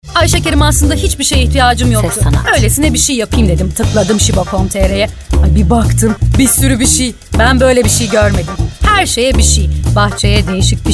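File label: Turkish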